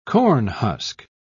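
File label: "cornhusk", British English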